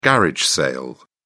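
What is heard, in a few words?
"garage sale", English, British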